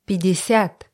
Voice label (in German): russische zahl 20; russische zahl 50; Zahlen auf Russisch